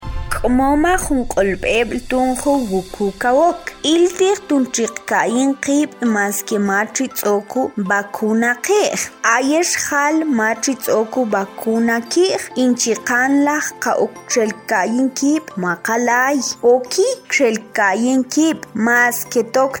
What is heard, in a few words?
SPOT MAM